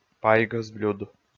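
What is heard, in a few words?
"пайгӧс блюд", Komi, male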